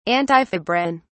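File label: "antifebrin", British English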